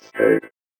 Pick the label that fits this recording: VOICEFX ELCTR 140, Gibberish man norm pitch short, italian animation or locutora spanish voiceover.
VOICEFX ELCTR 140